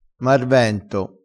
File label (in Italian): "marvento", crespinese, vocabolario dialettale